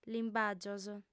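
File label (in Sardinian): "limbàgios", Sardinian, female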